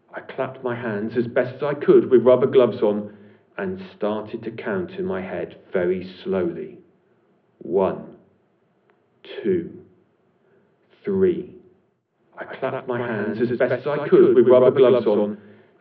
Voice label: without with echo